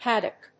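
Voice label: "haddock", English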